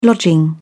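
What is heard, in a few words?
"lodging", British English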